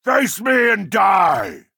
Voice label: orc clansman attack